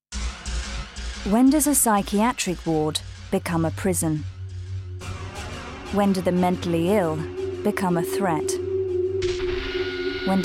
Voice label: documentary; Dispatches